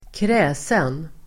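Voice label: "kräsen", Swedish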